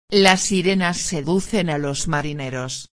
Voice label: frase sirena, espanhol; palavra do dia

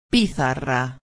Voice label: "pizarra", espanhol

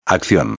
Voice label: "accion", espanhol

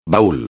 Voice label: "baul", espanhol

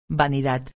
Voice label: "vanidad", espanhol

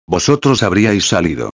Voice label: "habriais salido", espanhol

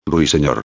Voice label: "ruisenor", espanhol

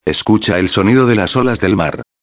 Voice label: frase sonido, espanhol

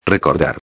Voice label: "recordar", espanhol

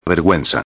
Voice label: "verguenza", espanhol

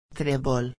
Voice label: "trebol", espanhol

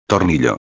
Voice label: "tornillo", espanhol

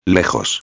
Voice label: "lejos", espanhol; palavra do dia

